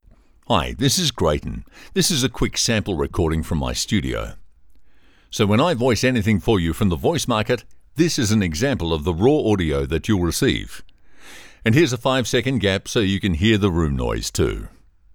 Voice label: Studio Sound Check